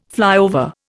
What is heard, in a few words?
"flyover", Indian